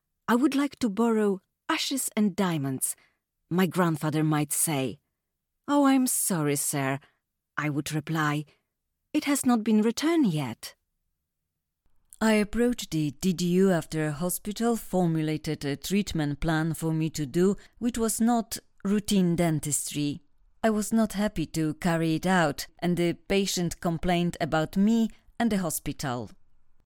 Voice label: female polish english with polish accent narration compilation